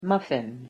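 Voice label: "muffin", English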